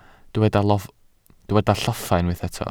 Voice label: "lloffa", Welsh

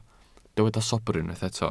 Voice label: "sobr", Welsh

